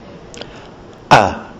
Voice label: a as in haka, kiwi english